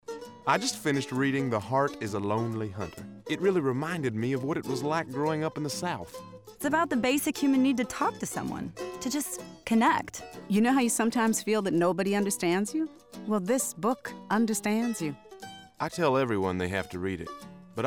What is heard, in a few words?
radio